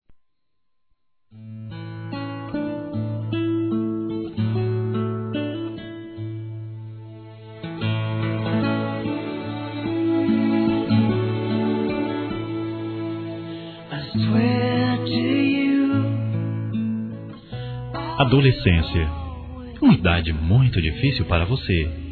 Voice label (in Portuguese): aniversário adolescente, masc; Aniversários; Aniversários diversos